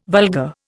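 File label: "vulgar", Indian English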